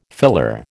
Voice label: "filler", American